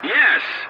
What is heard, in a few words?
voice message yes, english; War Thunder